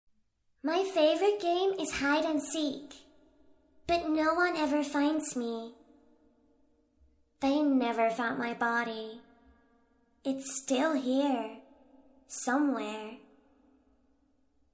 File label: creepy little girl talking and laughing